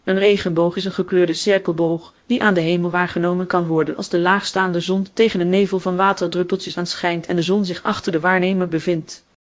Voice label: speaker, nl NL

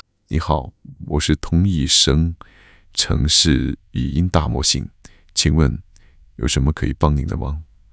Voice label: cn male

